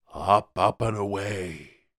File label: human wizard says up up and away